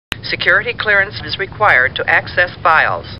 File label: Computer Voice; Star Trek